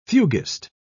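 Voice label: "fuguist", British English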